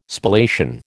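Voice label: "Spallation", American English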